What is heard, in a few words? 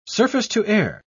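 "surface-to-air", British English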